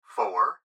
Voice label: Gps Voice, Male; Speaker Adult Male; Recorded Voice